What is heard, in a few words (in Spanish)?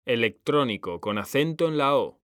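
"electrónico", Spanish, male; mekanta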